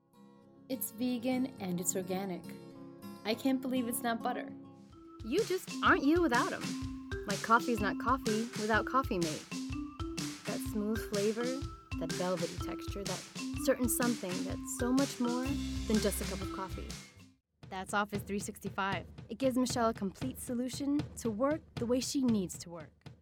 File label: English Voice Over